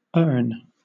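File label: "urn", British English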